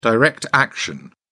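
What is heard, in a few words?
"direct action", British English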